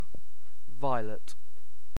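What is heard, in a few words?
"violet", British English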